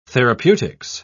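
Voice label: "therapeutics", British English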